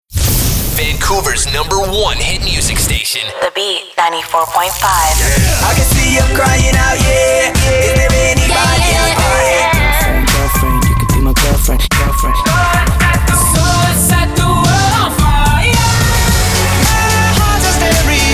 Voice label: CHR Imaging

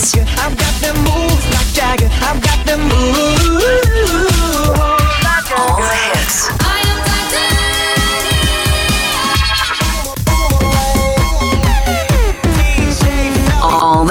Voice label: Radio One Imaging Montage

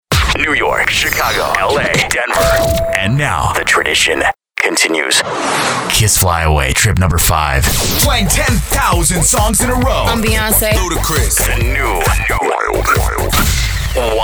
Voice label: VO demo

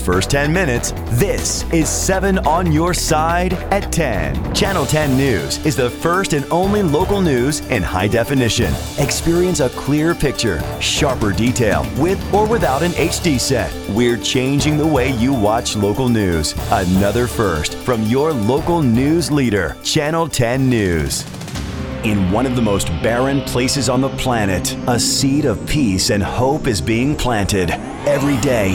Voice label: TV Promo

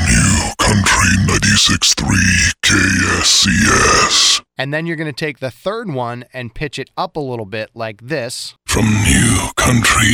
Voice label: Scary Voice Tutorial; Halloween

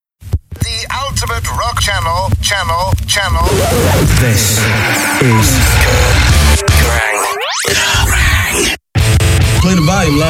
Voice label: imaging radio; KERRANG

